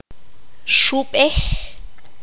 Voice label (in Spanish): "pierna", Q'anjob'al